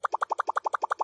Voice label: fearful emote animal crossing